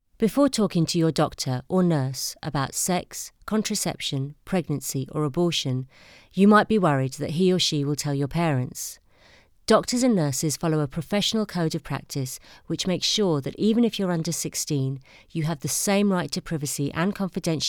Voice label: Natural

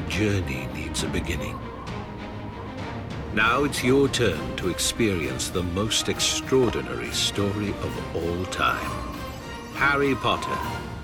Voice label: Broadcast Samples

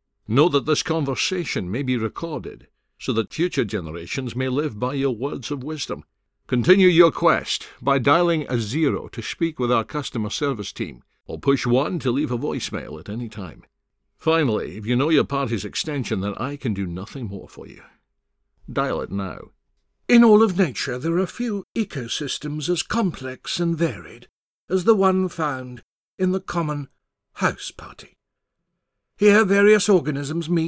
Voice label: Character Samples

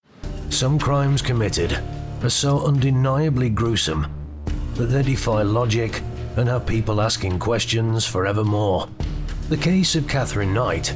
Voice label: Narration Reel

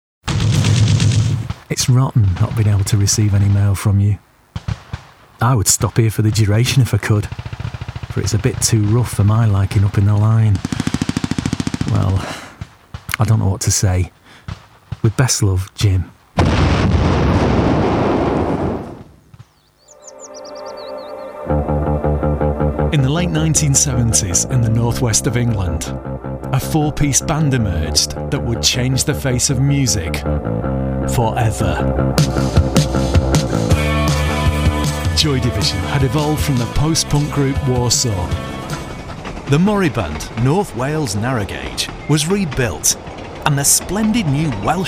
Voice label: Corporate Narration Showreel

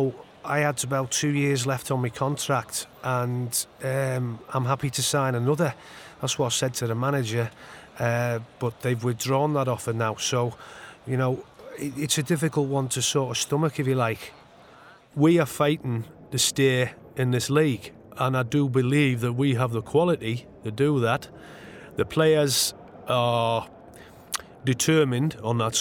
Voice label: accent reel

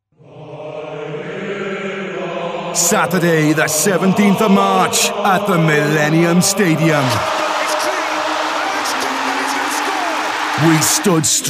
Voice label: Welsh

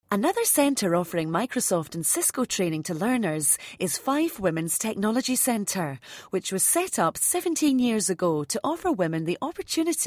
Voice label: corporate

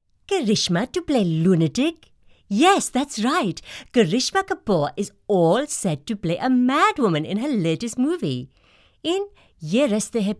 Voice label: Indian Accent Character

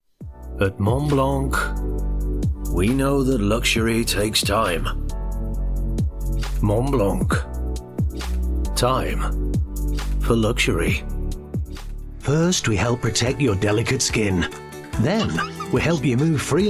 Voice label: Commercial Voice Reel, voices male

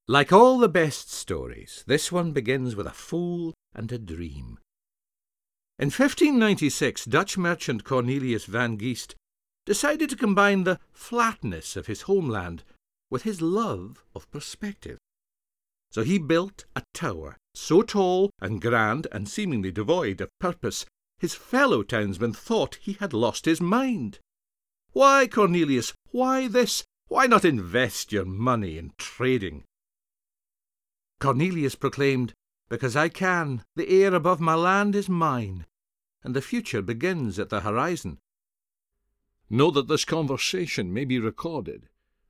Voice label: Scots Accent Samples; Scottish

